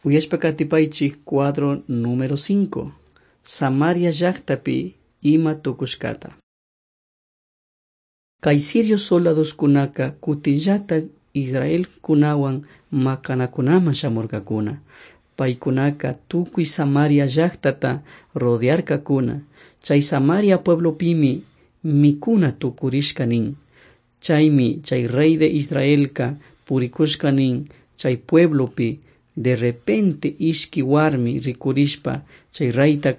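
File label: quichua chimborazo, drama; on trial for god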